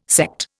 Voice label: "Sekt", Indian

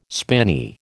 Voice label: "spinney", American

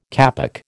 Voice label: "kapok", American

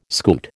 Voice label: "scoot", American

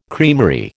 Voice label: "creamery", American

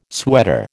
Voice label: "sweater", American

